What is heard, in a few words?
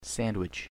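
"sandwich", American English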